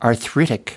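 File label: "arthritic", US English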